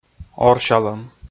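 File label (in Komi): "орсялӧм", Komi, male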